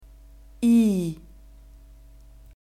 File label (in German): "i", German